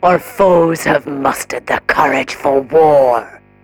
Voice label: advisor notification enemy declared war, militarist; Stellaris; ethics